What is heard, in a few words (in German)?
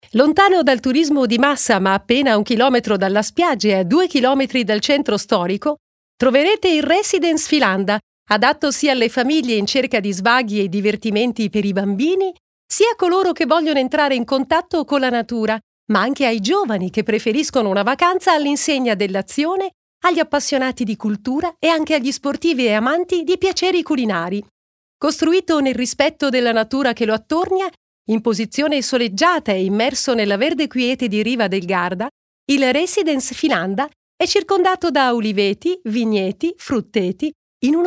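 Italian, Kommentar Neutral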